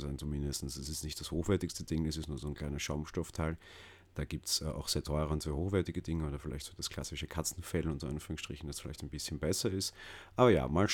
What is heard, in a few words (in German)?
Blue Yeti Pro Test XLR Popschutz